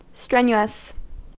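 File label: "strenuous", US English; pronunciations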